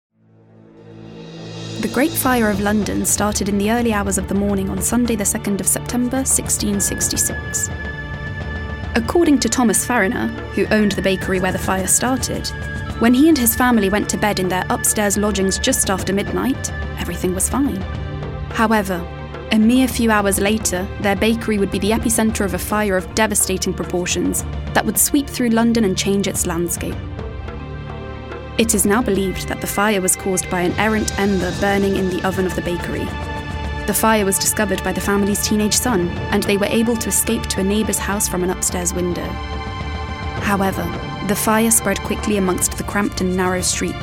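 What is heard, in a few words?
English, documentary; GoLocalise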